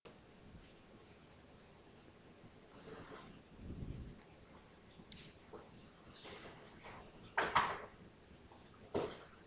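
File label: "also", spoken word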